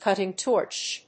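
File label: "cutting torch", English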